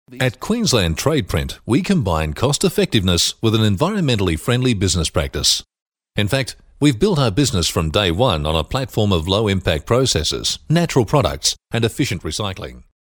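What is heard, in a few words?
Australian English, male, corporate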